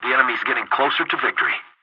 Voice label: pilot tickets lead start enemy, english